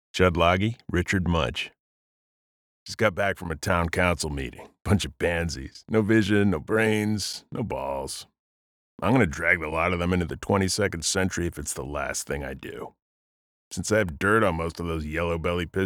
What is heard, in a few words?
Male